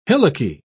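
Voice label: "hillocky", British English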